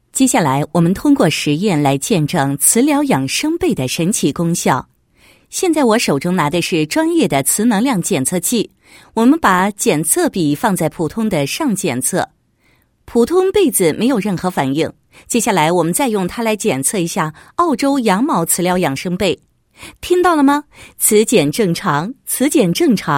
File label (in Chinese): 女 解说词 澳洲羊毛磁疗养生被检验, 配音案例